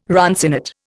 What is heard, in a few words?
"runcinate", Indian